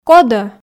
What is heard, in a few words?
"кодӧ", Komi